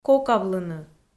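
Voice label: "кокавлыны", Komi, female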